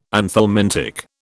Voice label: "anthelmintic", British